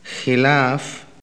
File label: Arabic dispute; Arabic [xilaaf] ‘dispute’